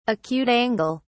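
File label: "acute angle", English, British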